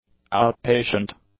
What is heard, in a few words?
"outpatient", English; adjectives